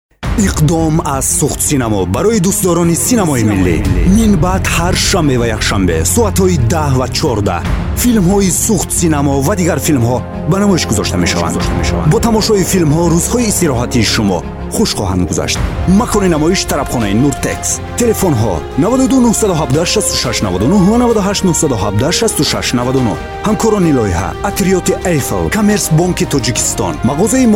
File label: tajik male